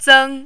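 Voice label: "zeng1", mandarin, high level tone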